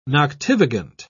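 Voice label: "noctivagant", British English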